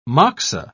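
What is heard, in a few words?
"moxa", British English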